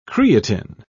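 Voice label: "creatine", English, British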